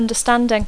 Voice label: "understanding", English